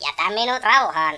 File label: "leave me alone", Finnish; Worms Armageddon